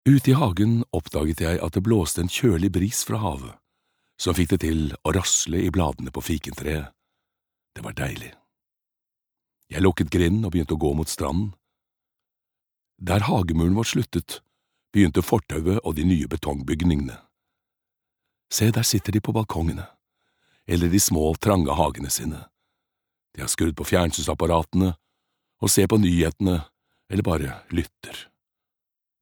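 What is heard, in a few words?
no audio book, Norwegian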